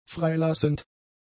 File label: "freilassend", German; adjectives